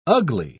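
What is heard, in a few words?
"ugli", British English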